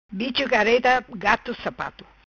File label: "Bicho Careta Gato Sapato", Macanese